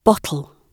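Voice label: "bottle", British English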